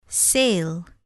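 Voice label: "sale", English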